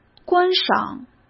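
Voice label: "观赏", Mandarin Chinese; chinese flashcards; hsk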